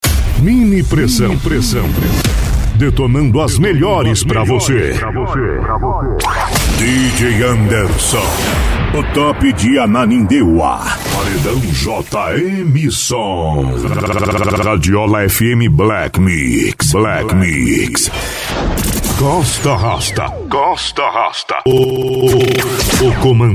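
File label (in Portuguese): ♂, vozes para vinhetas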